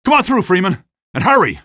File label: male; streetwar; tunnel